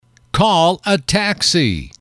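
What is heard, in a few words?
"call a taxi", English, male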